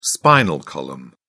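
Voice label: "spinal column", British English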